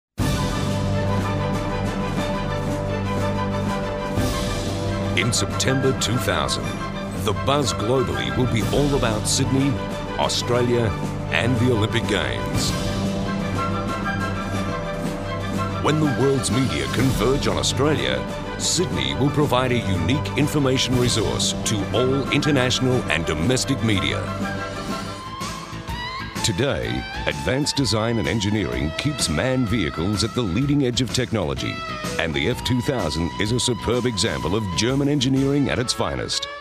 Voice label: corporate